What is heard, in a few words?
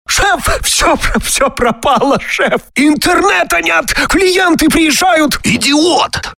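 Parod, parody; Mironov-Gesha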